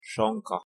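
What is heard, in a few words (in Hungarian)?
"sonka" Hungarian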